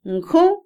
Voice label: amargo, Otomí, mujer; Percepción; INALI

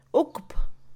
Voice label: tomar, Mixe, Mujer; INALI

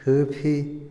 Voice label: abrazar, Otomí, hombre; INALI

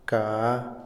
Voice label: cuervo, Otomí, hombre; INALI

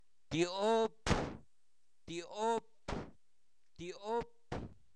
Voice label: dos, Zapoteco, santo domingo albarradas; INALI; numeros

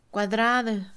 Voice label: cuadrado, Mixe, Mujer; INALI

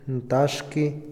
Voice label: resbalar, Otomí, hombre; Movimiento; INALI

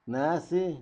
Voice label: "ahora sí", Zapoteco Magdalena Ocotlan; Adverbios; INALI; Haspelmath

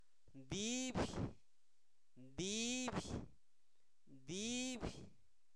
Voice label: cicatriz, Zapoteco, santo domingo albarradas; INALI; enfermedades

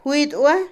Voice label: Otomí, mujer; pantalones, calzones; Ropa; INALI

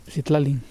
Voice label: "estrella", Mexicano Temixco Xoxocotla

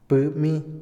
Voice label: "martillo", Otomí, hombre; Acciones; INALI

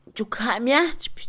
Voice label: Mixe, Mujer; probar; INALI

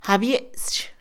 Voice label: persona ajena a la comunidad indígena, Mixe, Mujer; INALI

